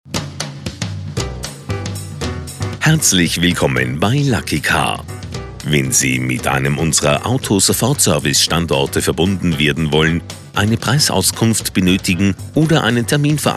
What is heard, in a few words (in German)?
Tel; Lucky Car